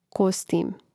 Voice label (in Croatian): "kostim", Hrvatski; Hrvatski mrežni rječnik; imenica; Mrežnik